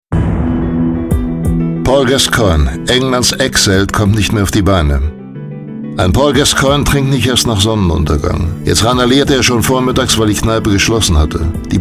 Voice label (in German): Doku, deutsche Synchronstimme; Stimme von Bruce Willis, Gerard Depardieu